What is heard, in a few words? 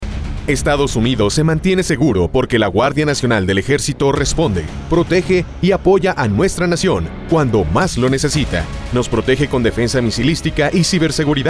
SPANISH